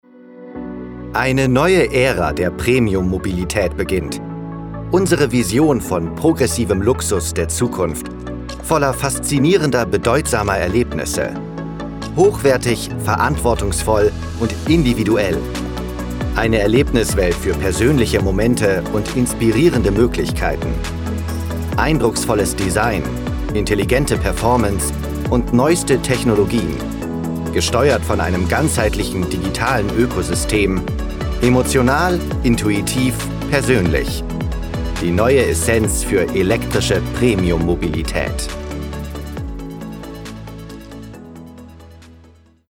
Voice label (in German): Werbung Auto